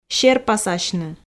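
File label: "серпасасьны", Komi, female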